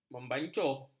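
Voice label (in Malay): "membancuh", Malay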